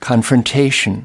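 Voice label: "confrontation", US English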